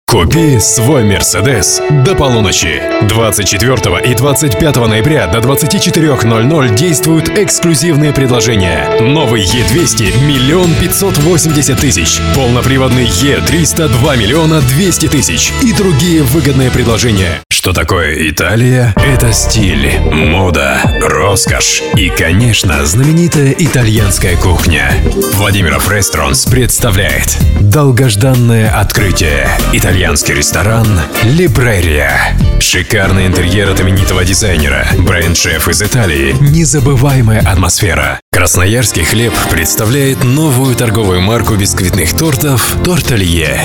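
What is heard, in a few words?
Russian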